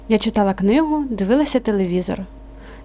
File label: dialogue, Ukrainian